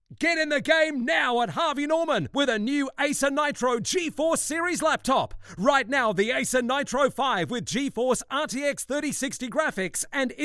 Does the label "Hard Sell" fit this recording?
Yes